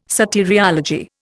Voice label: "soteriology", Indian